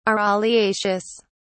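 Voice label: "araliaceous", British English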